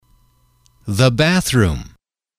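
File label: "the bathroom", English, male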